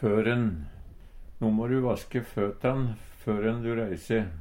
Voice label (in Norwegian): førenn, Numedalsmål; Du må vaske re førenn du reise.